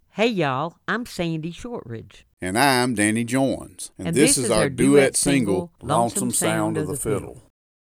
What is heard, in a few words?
Radio Liner